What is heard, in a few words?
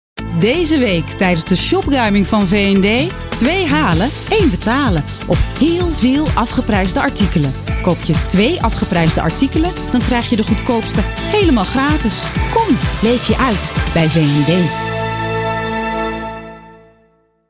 Commercial; Shopruiming